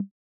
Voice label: gibberish; crabs-game